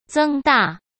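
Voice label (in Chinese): "增大", Mandarin Chinese; hsk; chinese-flashcards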